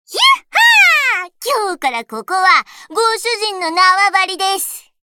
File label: Voice victory